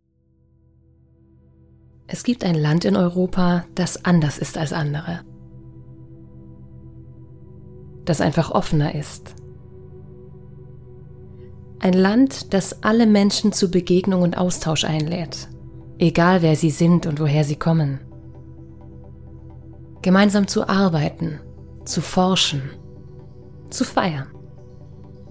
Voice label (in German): Imagefilm mit Musik